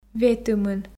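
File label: "ветымын", Komi, female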